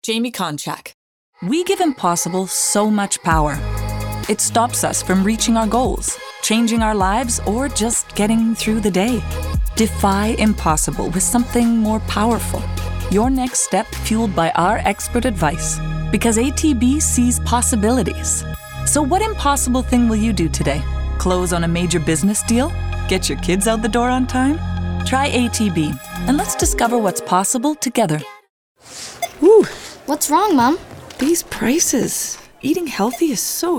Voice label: Commercial